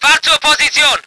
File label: voice ger vehicle